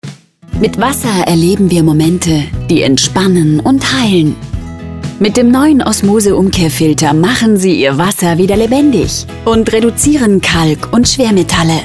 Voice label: werbung

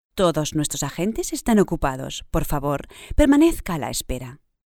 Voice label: telefon, spanisch

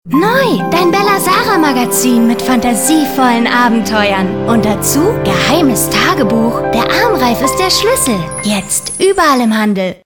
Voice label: werbung, deutsch frauen

